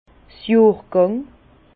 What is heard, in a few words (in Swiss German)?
"johrgang", Bas Rhin; vin; millésime